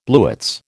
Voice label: "blewits", American

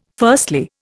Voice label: "firstly", Indian

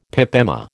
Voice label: "pip emma", American

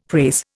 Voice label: "prase", Indian; Dictionary

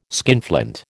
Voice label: "skinflint", American

